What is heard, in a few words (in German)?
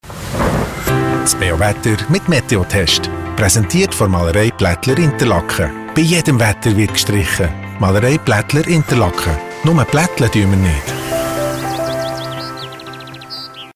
Werbung, Radiowerbung